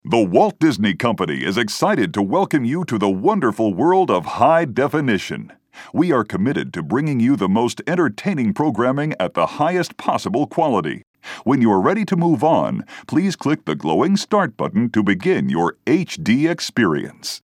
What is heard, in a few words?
announcer; disney hd